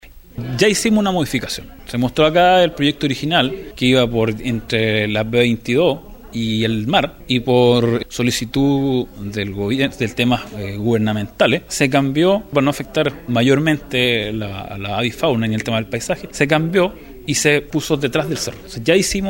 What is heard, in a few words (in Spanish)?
Cuña; PAC Línea Alta Tensión